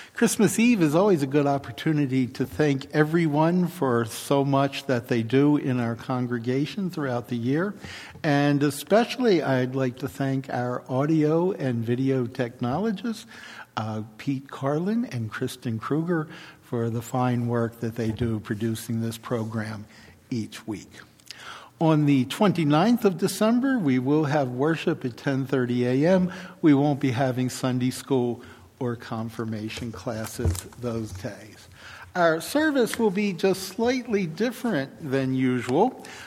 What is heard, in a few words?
Welcome and Announcements; Christmas